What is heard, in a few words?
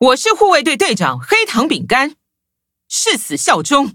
touch, zh-hant; Cookie Run